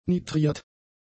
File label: "nitriert", German; adjectives